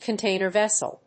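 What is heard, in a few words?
"container vessel", English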